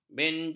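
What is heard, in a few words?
"benjing", Malay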